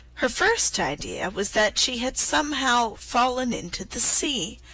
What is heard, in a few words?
alice in wonderland slow